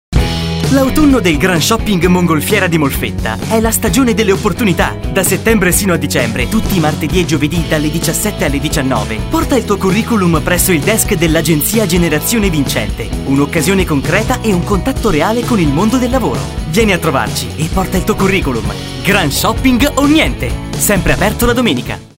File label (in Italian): Spot Radio; GSM MOLFETTA; Desk Giovani